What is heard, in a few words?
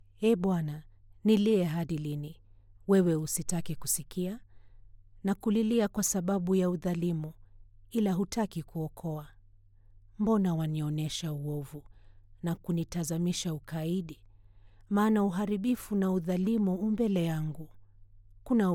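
Swahili Female Voice Over Narration; voice actor located in Nairobi, Kenya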